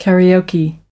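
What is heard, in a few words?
"karaoke", English word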